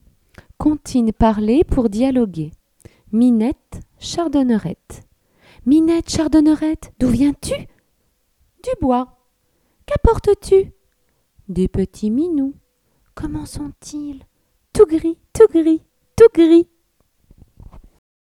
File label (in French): Minette Chardonerette Comptines Parlees Pour Dialoguer